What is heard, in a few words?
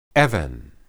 "eben", Hebrew